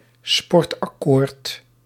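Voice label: "sportakkoord", Dutch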